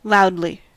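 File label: "loudly", US English; pronunciations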